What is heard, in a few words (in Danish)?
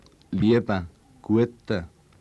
svejtsertysk liebe gute; svejtsertysk [ˈli͡ɐb̥ə ˈg̊u͡ɐd̥:ɐə]